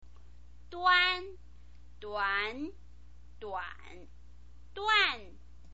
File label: "duan", chinese pinyin